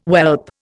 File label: "whelp", Indian